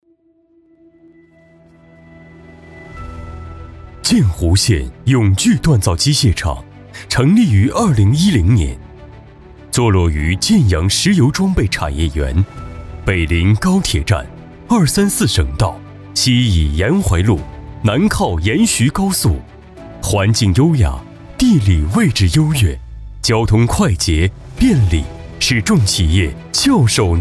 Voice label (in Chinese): 专题工程稳重大气; 永炬锻造机械厂